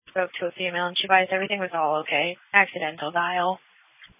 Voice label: Sheriff Dispatch